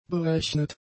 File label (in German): "berechnet", German; adjectives